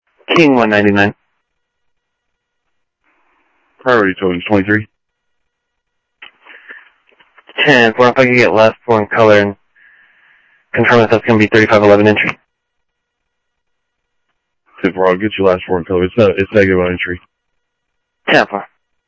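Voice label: Sheriff Lake Patrol, scanner audio trunk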